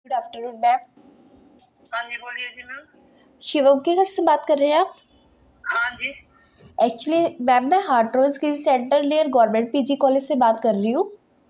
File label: call recording